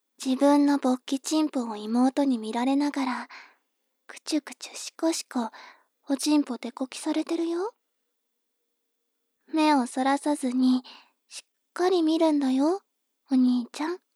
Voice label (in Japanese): 妹 台詞